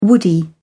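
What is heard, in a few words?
"woody", British English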